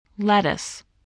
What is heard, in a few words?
"lettuce", spelling